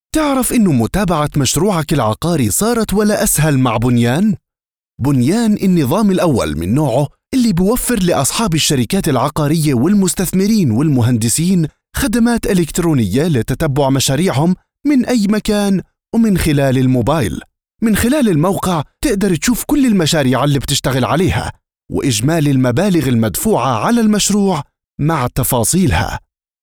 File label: Jordanian, Jordanian Voiceover